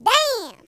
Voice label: "ouch", Gangsta; speech